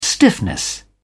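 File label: "stiffness", British English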